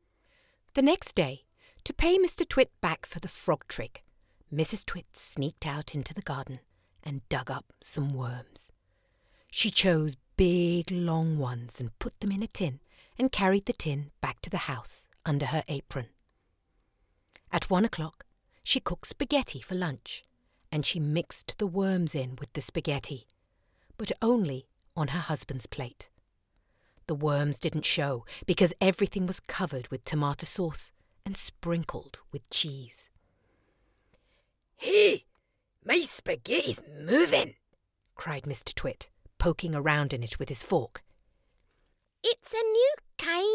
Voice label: Children Fiction; The Twits